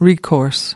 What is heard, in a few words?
"recourse", us